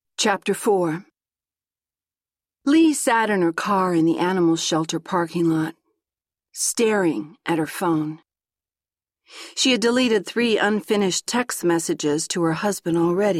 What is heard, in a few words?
Audiobook; Never Con a Corgi; Edie Claire; Downpour